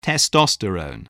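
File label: "testosterone", English word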